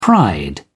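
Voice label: "pride", British English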